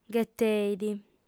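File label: "gheteit", Sardinian, female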